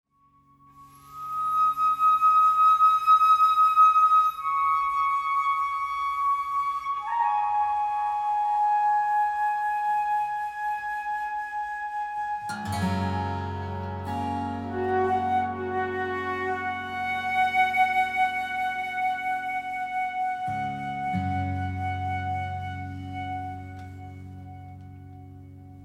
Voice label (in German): Lichtmeditation